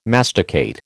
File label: "masticate", American